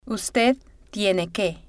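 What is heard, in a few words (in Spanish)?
"ud tiene que", Spanish, female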